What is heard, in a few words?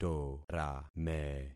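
"torame", concatenated syllables; Affixing experiment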